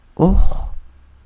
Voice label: "oj", Quiché; nosotros; we; INALI; UTexas; CHQETA